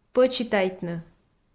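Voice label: "пӧчитайтны", Komi, female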